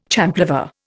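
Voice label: "champlevé", Indian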